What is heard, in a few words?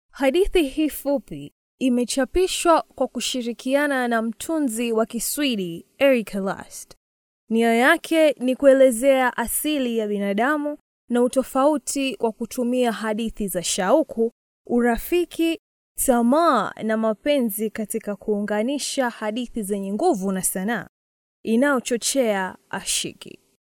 Swahili Kenya F